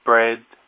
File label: "bread", English; hadhead